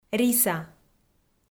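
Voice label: "риса", Komi, female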